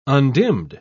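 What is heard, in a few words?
"undimmed", British English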